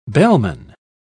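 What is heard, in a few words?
"bellman", British English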